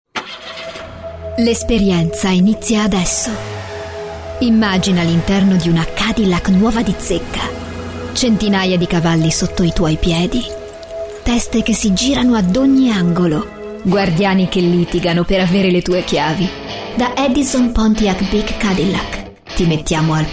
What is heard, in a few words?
ITALIAN; toyota